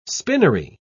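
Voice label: "spinnery", British English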